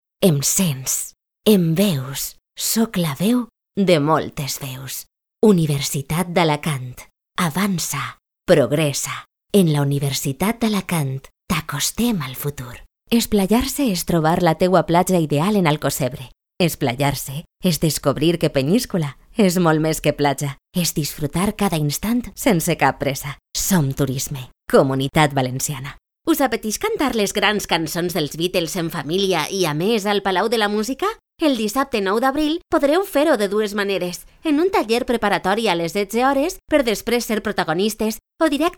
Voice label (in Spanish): comercial valenciano